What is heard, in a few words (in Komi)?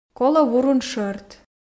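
колӧ вурун шӧрт, Komi, female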